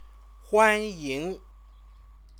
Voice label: "huanying", Chinese